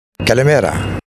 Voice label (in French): "kalimera" prononciation